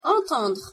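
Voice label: "entendre", French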